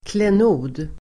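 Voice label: "klenod", Swedish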